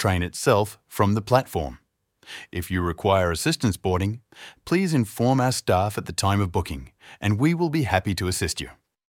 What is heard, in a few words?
Station map audio description